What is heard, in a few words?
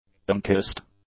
"unkissed", English; adjectives